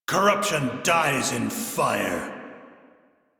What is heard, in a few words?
Crew Gun Kill Fighter, Male; Dialog; en; Warframe; Railjack Crew; Arbiters Hexis